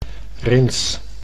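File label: "rins", Dutch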